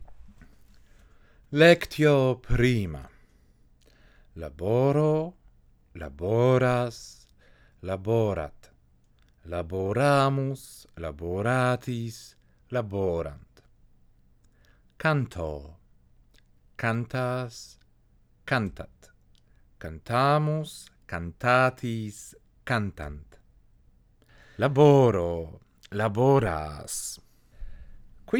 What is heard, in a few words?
latin pronunciation practice